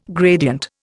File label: "gradient", Indian